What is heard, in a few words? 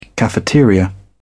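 "cafeteria", English, British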